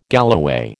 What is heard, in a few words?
"galloway", American